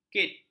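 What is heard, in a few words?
"kit", Malay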